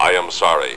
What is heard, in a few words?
I Am Sorry, robot; robot b9